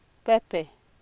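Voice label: "pepper", Nigerian Pidgin, female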